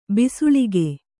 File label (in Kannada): "ಬೆಸಳಿಗೆ", kannada